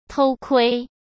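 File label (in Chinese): "偷窥", Mandarin Chinese; chinese-flashcards; hsk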